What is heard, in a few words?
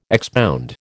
"expound", American